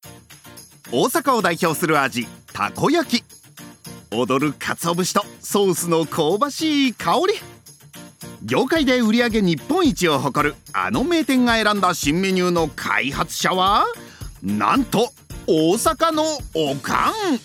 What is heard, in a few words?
Documentary CM Gourmet; Japan